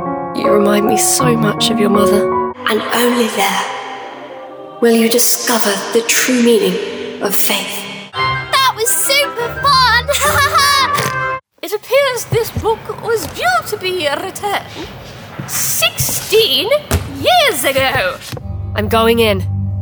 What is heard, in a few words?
Videogame and Character